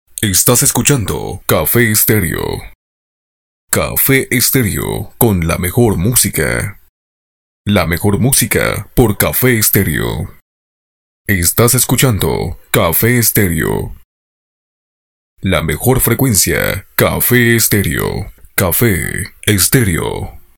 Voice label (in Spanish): VOZ OFF